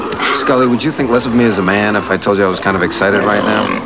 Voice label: man excited